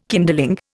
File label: "kindling", Indian